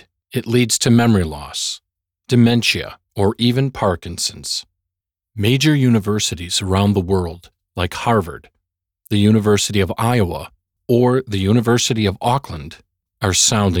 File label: Adult Male Brain Hearing Narration; voiceover artist